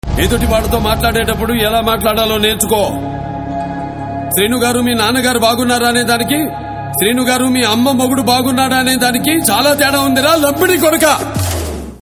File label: Dialogue Ringtone; BB3; Balakrishna